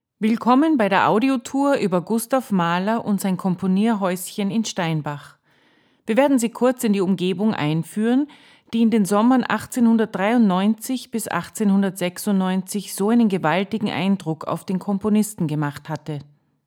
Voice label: audioguide, de; Gustav Mahler